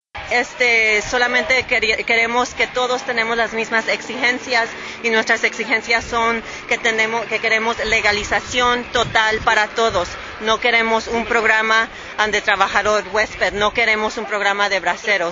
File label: spanish